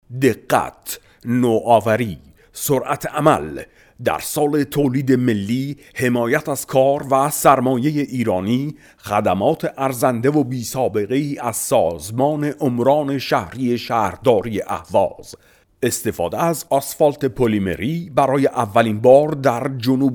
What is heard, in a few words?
Farsi Commercial